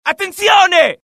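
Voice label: alert, Italian; mohaa; dialogue; sighted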